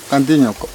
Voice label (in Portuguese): "estrela", kalapalo